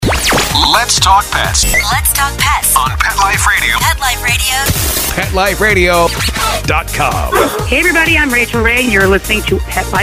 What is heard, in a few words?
Generic Sweeper; PetExpo